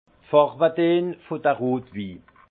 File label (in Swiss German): "farwedeen", alsace; vin; teintes des vins rouges